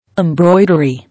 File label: "Embroidery", English